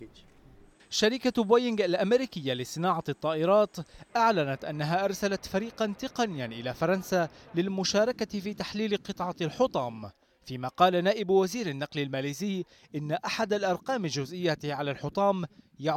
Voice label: Arabic Radio TV Commercial Voice